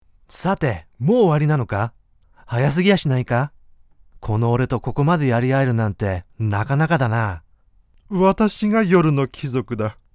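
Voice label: Japanese, game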